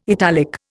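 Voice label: "italic", Indian English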